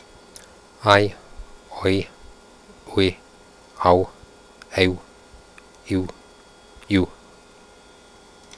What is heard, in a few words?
quenya diph